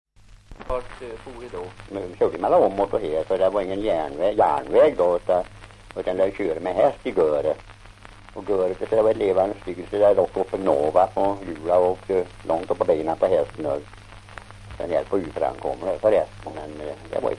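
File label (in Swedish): Järnskog Värmland, dialekter; svenska sagor; Folkminnen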